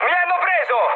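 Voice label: Italian aircraft destroyed mood high, speech; War Thunder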